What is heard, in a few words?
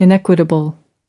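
"inequitable", US English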